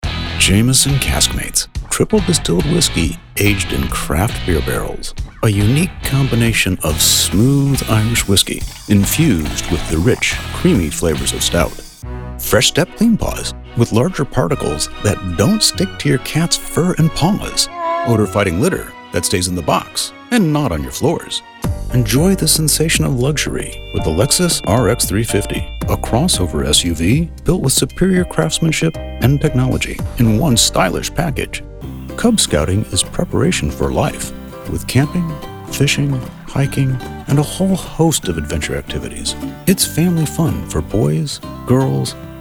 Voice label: Commercial